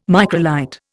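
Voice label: "microlight", English, Indian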